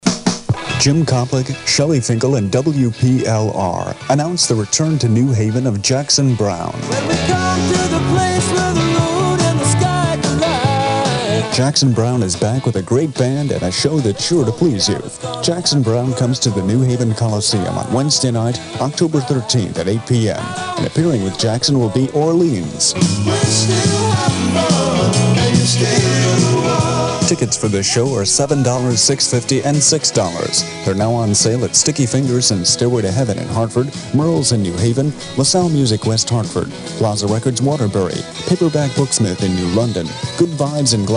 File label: Commercial Voiceover; New Haven Coliseum